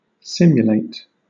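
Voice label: "simulate", British English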